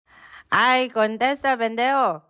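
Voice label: "Contesta Pendejo", Spanish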